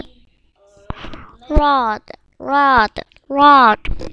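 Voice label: "rub", spoken word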